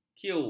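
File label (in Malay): "kiu", Malay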